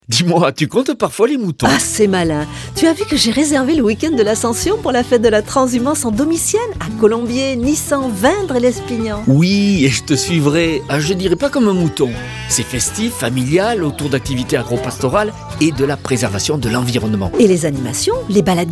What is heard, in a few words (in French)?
spot radio; domitienne